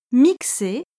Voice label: "mixer", French